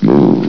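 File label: "move", male; valve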